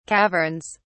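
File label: "caverns", British English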